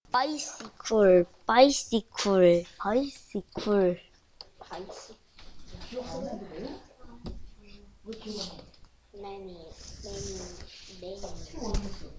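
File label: "bicycle", spoken word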